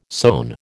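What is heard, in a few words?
"sown", American English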